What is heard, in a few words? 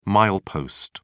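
"milepost", British English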